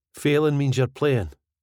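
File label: Failin means yer playin, Scottish English; 全く参加しないよりは、下手なほうがマシだよ。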